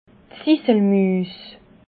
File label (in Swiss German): "ziselmuus", alsace